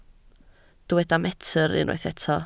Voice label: "metr", Welsh